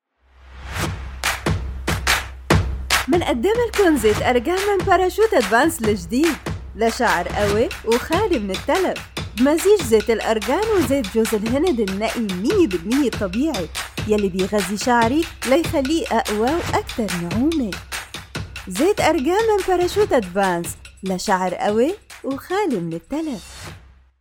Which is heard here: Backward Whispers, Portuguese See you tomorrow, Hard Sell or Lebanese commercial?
Lebanese commercial